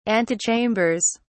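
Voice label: "antechambers", British English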